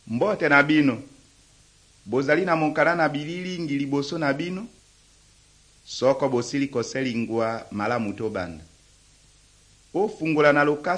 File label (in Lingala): good news lingala west, drama